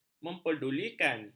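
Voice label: "mempedulikan", Malay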